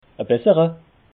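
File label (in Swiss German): "bessera", Alsatian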